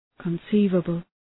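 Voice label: "conceivable", English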